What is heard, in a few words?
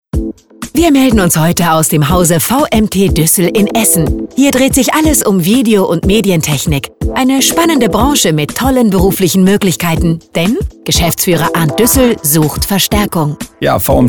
infomercial; vmt duessel